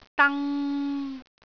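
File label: "dang3", Cantonese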